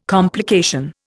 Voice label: "complication", Indian